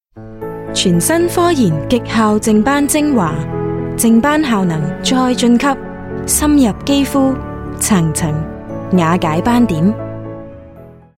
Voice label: Cantonese

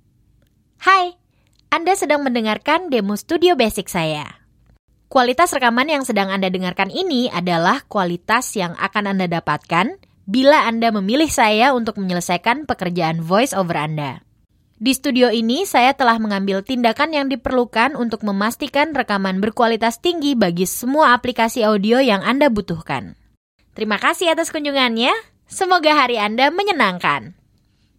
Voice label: Bahasa Indonesia Female Voice

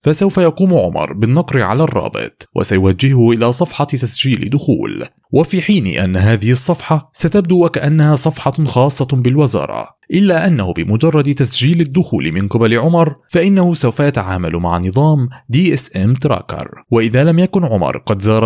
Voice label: Arabic Male Voice

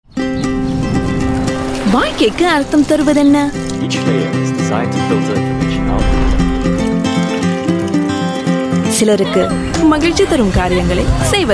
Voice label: Tamil; CPF

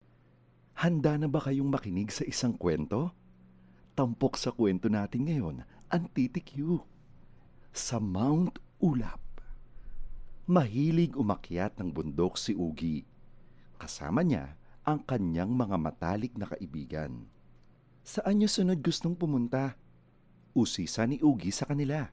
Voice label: Male Tagalog Voice Sample

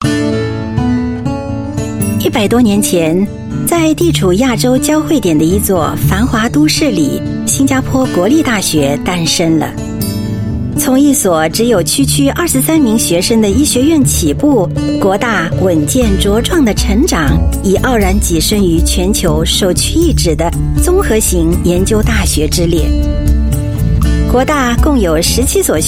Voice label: Mandarin, China Female; NUS

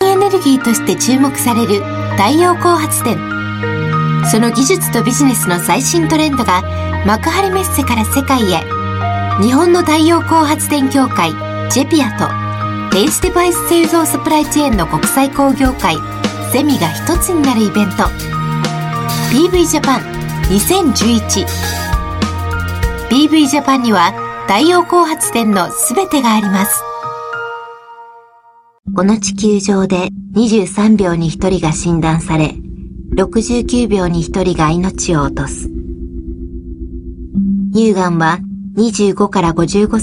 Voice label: Japanese Corporate Female

